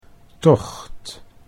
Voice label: "tocht", Dutch